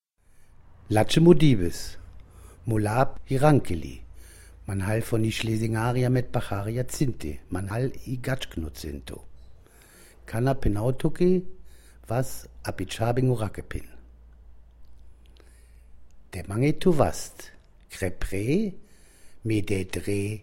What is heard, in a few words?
Romanes